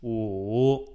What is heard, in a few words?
"午", Chinese